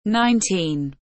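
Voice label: "Nineteen", English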